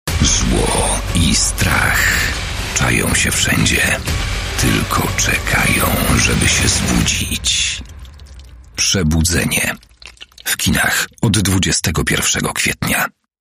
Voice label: Lektor movie trailer polish male voice over